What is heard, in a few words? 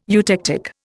"eutectic", Indian